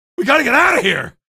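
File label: citizen evac defend us, male; vo; npc; source engine; episodic; episode 1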